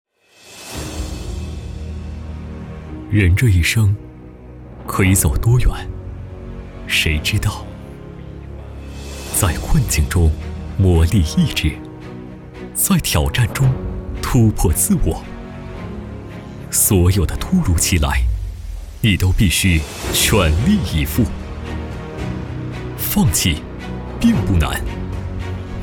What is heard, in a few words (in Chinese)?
男 偏人物 叙事